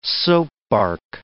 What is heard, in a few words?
"soapbark", english